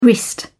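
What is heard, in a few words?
"wrist", English, British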